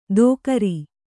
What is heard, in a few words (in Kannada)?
"ದೋಕರಿ", kannada